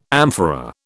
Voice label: "amphora", British